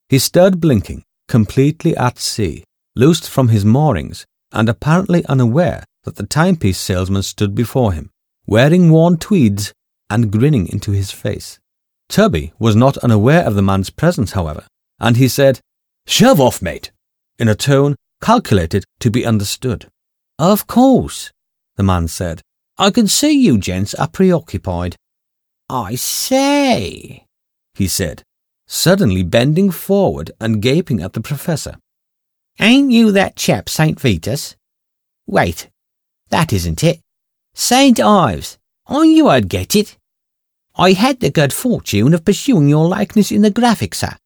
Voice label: Audio books; England